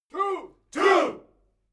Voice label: military shout english two